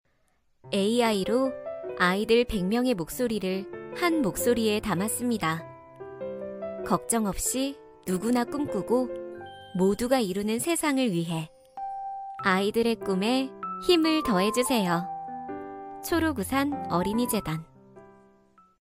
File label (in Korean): 맑음 차분한; 초록우산어린이재단